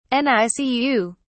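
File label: "nicu", British English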